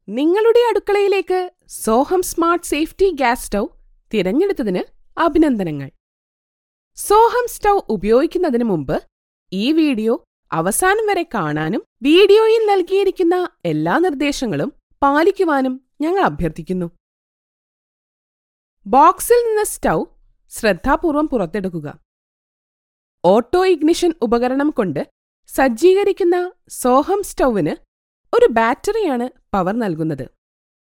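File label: Malayalam Commercial; GoLocalise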